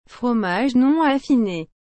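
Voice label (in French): "fromage non affiné", French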